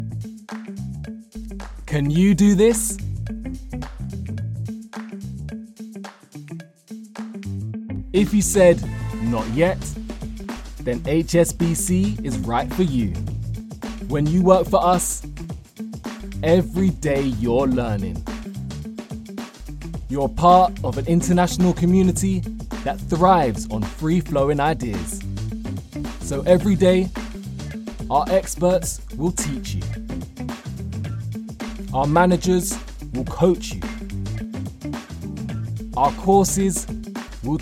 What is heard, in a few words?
Animation; HSBC